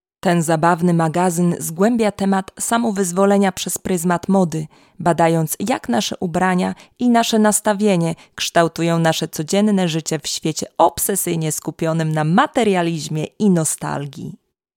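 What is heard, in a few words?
Narrative, Polish; GoLocalise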